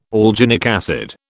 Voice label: "alginic acid", British

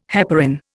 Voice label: "heparin", Indian

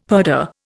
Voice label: "purdah", Indian; Dictionary

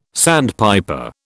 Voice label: "sandpiper", British

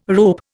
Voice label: "rope", Indian

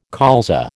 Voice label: "colza", American

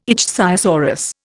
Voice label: "ichthyosaurus", Indian

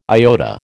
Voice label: "iota", American

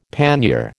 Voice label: "pannier", American

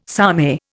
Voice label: "samey", Indian

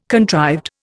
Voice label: "contrived", Indian

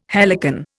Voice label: "helicon", Indian

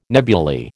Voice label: "nebuly", American

